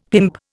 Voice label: "pimp", Indian; Dictionary